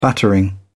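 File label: "battering", British English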